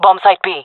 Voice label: loc b, jungle fem; vo